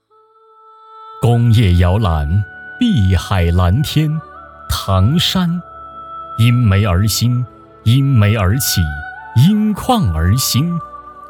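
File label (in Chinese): 男国大音-城市; 唐山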